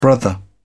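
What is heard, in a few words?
"brother", British English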